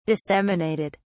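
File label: "disseminated", British English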